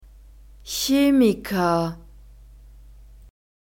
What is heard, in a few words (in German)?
"Chemiker", German